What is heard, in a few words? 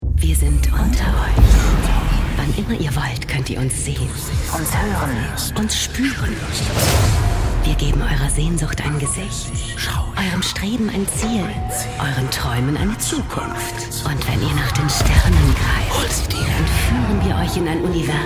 Trailervoice; Station Voice; Pro7 Ostern Highlighttrailer